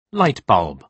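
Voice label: "light bulb", British English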